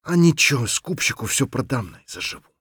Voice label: scav mutter, voice; Escape from Tarkov; scav6